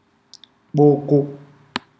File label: "hand", ndau